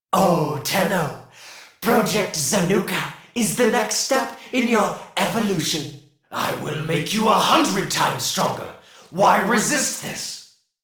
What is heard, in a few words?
Taunt Neutral; Warframe; Alad V Boss; en